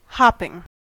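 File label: "hopping", US English; pronunciations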